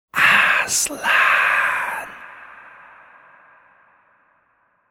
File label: "Aslan" whispered in male voice